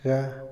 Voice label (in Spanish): si, Otomí, hombre; Cognición; INALI